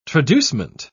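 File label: "traducement", British English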